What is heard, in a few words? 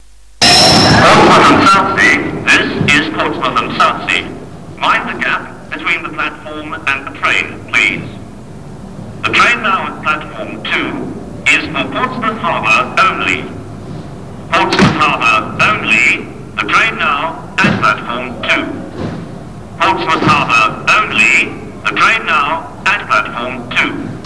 pre recorded platform announcement, Portsmouth Southsea